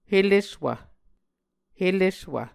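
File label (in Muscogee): "heleswv", Muscogee word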